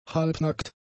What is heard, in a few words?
"halbnackt", German; adjectives